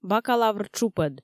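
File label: "бакалавр тшупӧд" Komi, female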